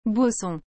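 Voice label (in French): "beau son", French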